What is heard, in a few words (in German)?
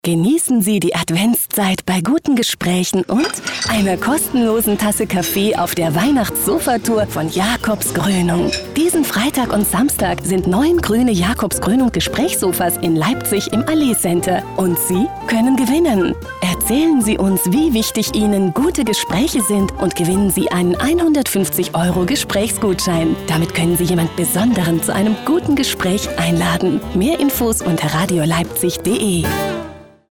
Freundlich; Fremdtake